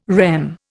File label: "REM", Indian